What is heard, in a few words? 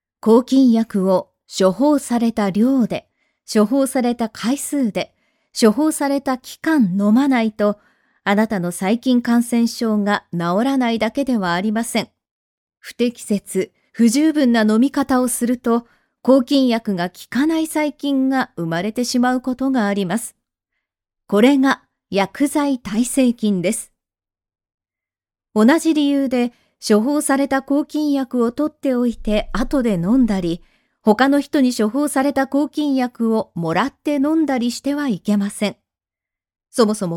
japanese e learning